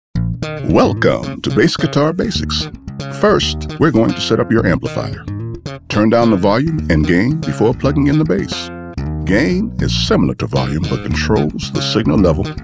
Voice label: narration